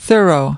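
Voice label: "thorough", English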